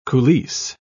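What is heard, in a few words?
"coulisse", British English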